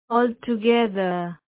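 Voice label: "altogether", Indian female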